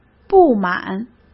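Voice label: "不满", Mandarin Chinese; hsk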